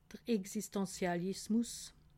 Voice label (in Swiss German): "existentialismus", alsace